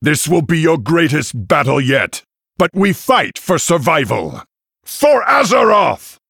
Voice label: Male Human Victory Pre Explosion